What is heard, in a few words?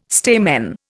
"stamen", Indian